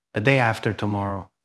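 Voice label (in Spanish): Voice Acting, Spanish, male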